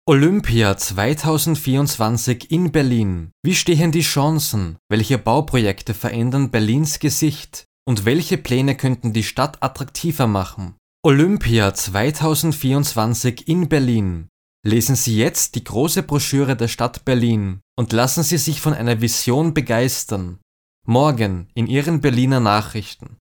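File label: German, male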